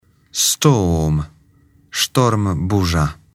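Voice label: "storm", English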